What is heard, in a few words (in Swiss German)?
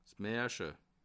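"marel", Bas Rhin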